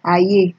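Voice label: "aye", Nigerian Pidgin, female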